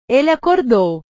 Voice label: "ele acordou", portugues

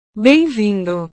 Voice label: "bem vindo", portugues

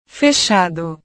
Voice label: "fechado", portugues

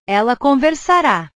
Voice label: "ela conversara", portugues; portugues/verbos

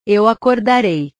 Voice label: "eu acordarei", portugues

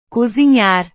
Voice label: "cozinhar", portugues; portugues/verbos

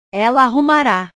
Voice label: "ela arrumara", portugues

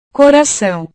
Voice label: "coracao", portugues

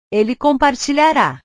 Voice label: "ele compartilhara", portugues; portugues/verbos

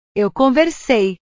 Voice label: "eu conversei", portugues; conversar; portugues/verbos

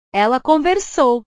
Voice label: "ela conversou", portugues; conversar; portugues/verbos

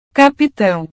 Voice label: "capitao", portugues

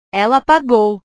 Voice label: "ela apagou", portugues

